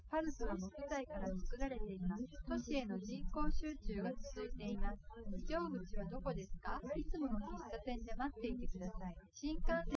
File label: female, live rec; SiSEC08; SiSEC underdetermined